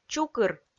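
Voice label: "чукыр", Komi-Zyrian, female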